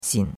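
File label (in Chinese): "xin3" Chinese; Cours de Chinois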